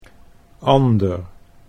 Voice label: "ander", Dutch